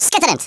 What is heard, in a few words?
"AMAZING", Africaan; Worms Armageddon